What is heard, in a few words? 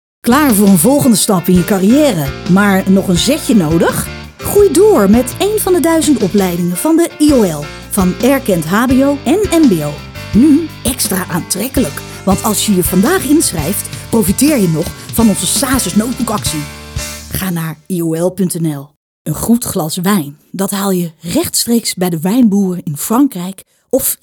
NL Compleet